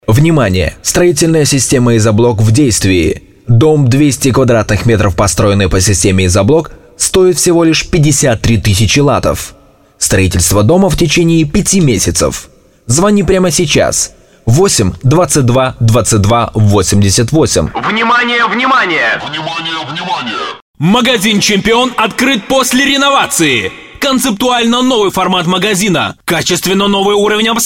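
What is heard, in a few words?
russian male commercial; voice demos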